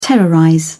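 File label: "terrorize", English, British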